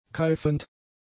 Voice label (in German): "keifend", German; adjectives